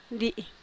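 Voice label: "dii", Teotitlán del Valle Zapotec; debt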